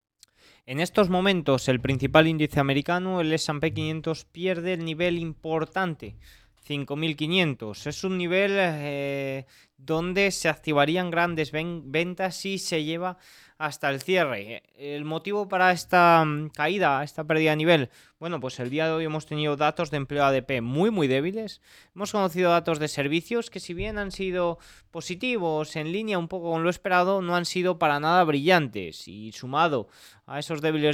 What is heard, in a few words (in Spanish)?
radio; noticias bolsa